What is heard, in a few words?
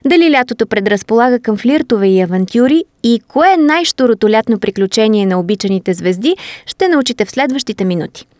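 Bulgarian General; GoLocalise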